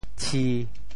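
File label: "tshi1", Teochew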